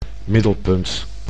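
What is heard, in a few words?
"middelpunt", Dutch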